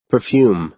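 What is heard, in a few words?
"perfume", American English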